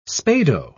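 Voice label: "spado", English, British English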